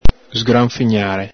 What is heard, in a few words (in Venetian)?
"sgranfignare", Veneto